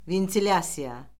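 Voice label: "ventilyasiya", Azerbaijani; Orfoqrafiya Lüğəti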